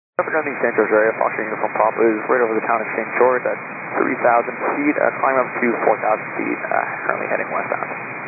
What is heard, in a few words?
122.925 MHz; scanner radio